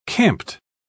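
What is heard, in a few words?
"camped", British English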